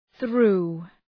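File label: "threw", English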